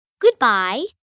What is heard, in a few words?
Goodbye, Greeting, 1 girl, 9 yrs; Talking; VoiceBox